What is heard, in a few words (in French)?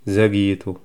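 "en retard", Amharic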